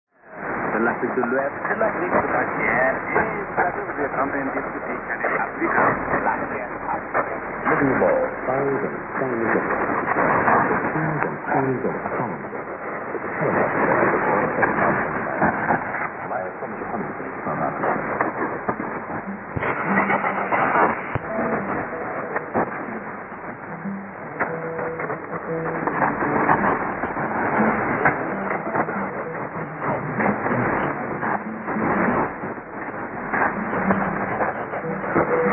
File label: 3345kHz; Channel Africa